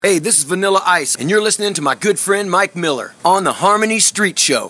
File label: promo